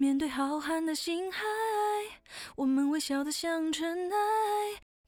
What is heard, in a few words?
chinese female recordings